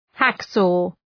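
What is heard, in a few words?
"hacksaw", English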